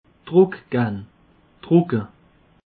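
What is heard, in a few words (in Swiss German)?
"druckgen", Alsatian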